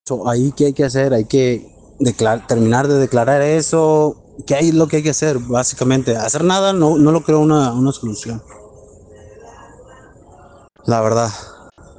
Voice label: whatsapp ptt